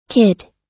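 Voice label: "kid", US English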